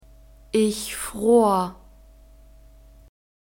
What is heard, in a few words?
"ich fror", German; verbs past tense irregular